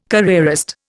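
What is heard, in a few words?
"careerist", Indian English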